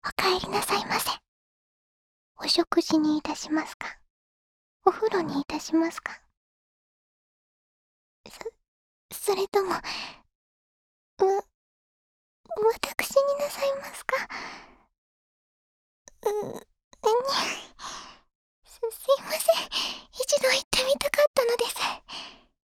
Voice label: お帰りなさいませ。, 癒し ボイス

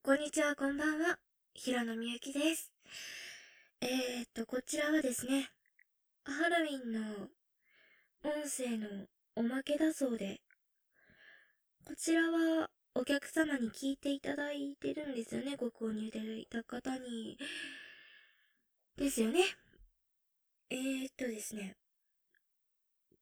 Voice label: フリートーク ハロウィン ver; 淫語実況

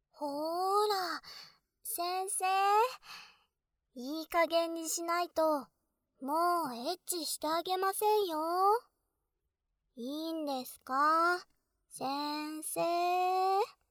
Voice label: 特典目覚まし音声

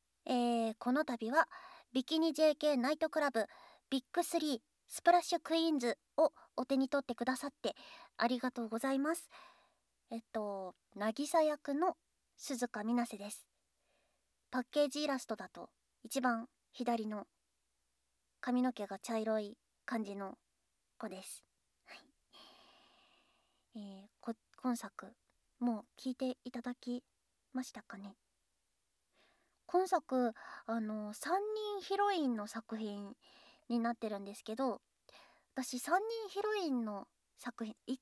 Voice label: フリートーク, ASMR

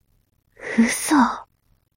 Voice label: mob女子台詞

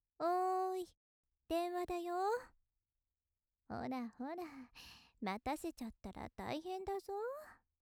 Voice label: 優しいお姉さん 電話だよ

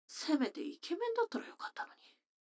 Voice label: 女の子台詞

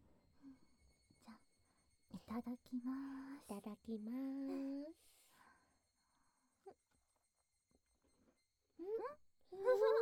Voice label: キスもたっぷり甘やかしカウントダウン手コキ, ASMR

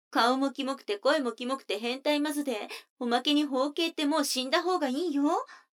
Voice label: 女の子 台詞, ASMR

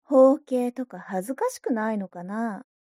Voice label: mob 女子 台詞

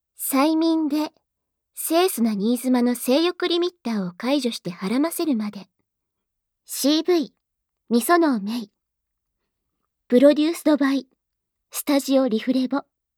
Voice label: タイトルコール, ASMR

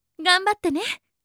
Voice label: 応援ボイス

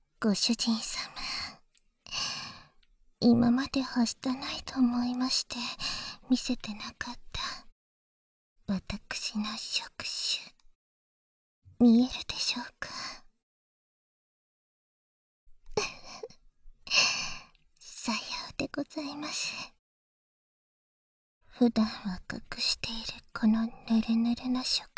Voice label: 耳を触手で攻められる, ASMR; 簡易モノラル編集 効果音なし

